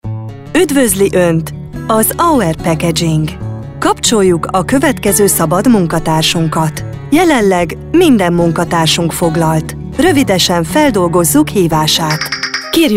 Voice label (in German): telefonansagen sprecher hun; Native Speaker